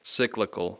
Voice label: "cyclical", English; I (short sound); Speech Pro